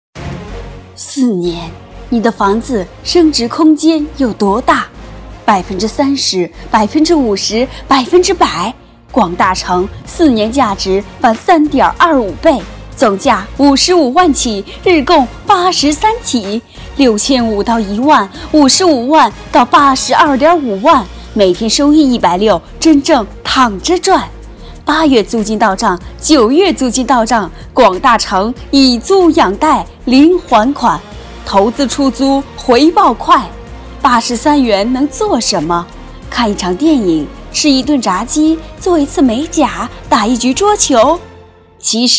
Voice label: 时尚广告声

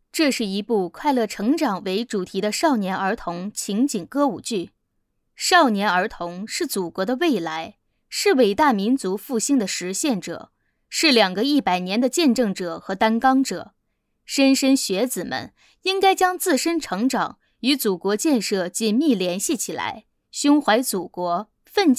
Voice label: 旁白 解说 女声

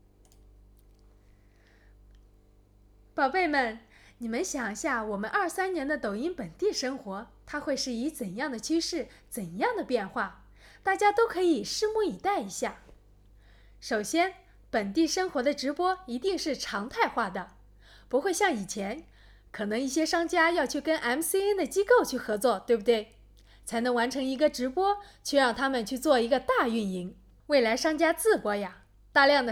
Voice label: 成熟女声

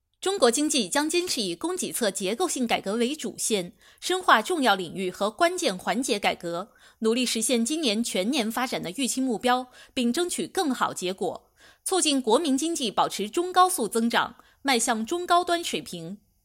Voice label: 时政新闻播音

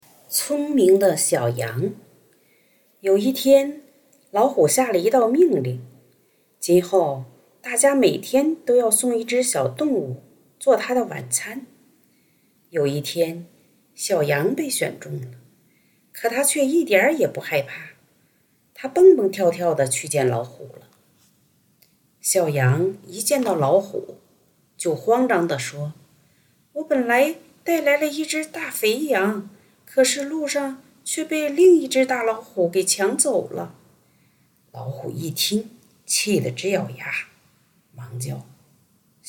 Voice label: 儿童故事; 聪明的小羊

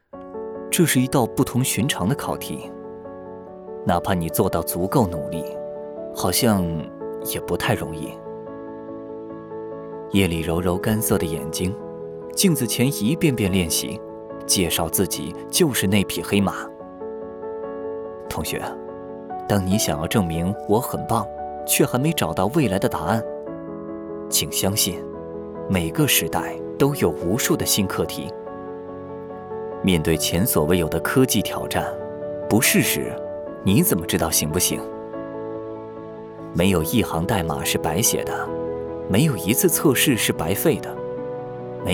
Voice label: 走心旁白; 走心旁白-荣耀

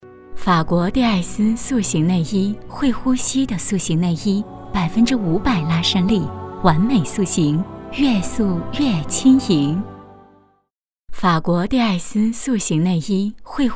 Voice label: 广告塑形内衣柔和

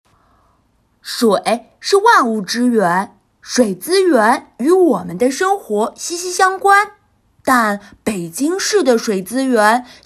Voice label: 男童试音; 可爱憨厚，类似喜羊羊声音

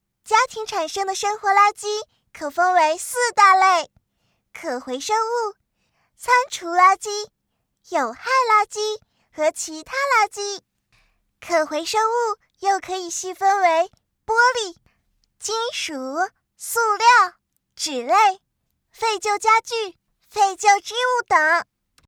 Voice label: 女童; 垃圾分类

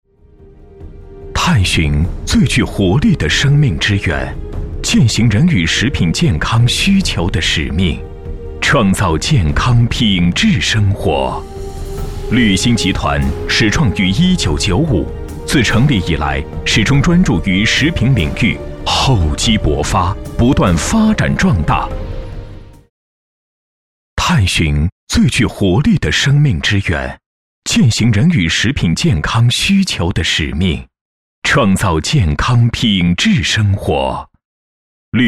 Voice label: 企业宣传; 绿新集团

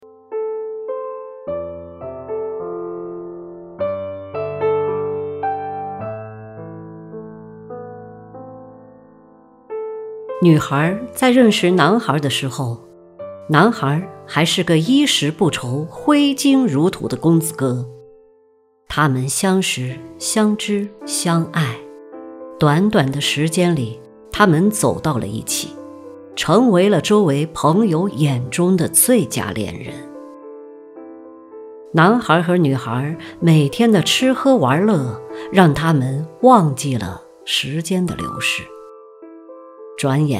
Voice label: 情感类 读物

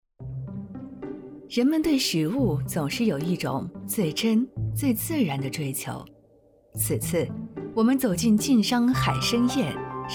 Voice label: 美食纪录片

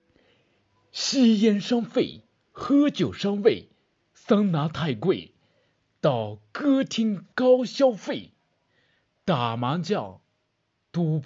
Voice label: 品牌 广告

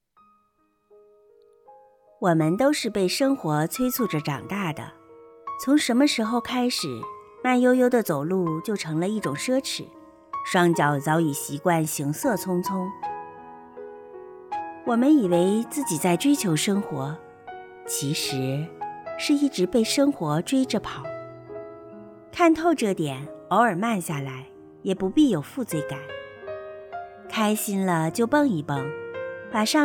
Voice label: 商业配音

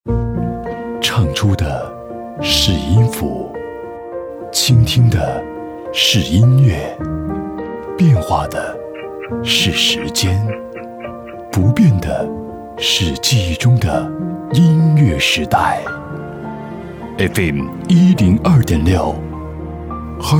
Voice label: 音乐厅混缩

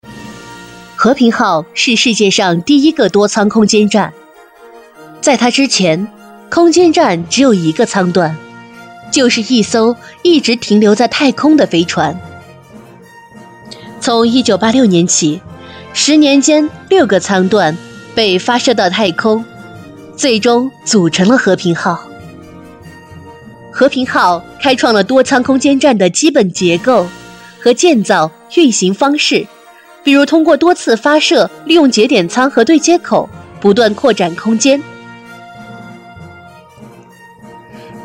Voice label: 空间站女声纪录片

